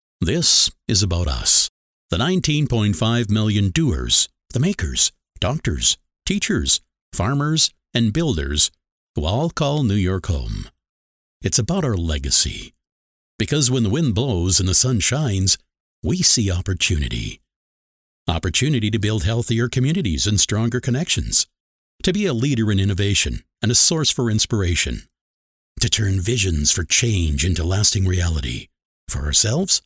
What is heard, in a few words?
english american automotive